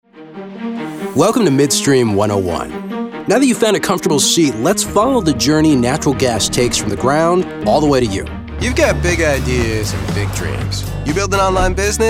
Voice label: Corporate Narration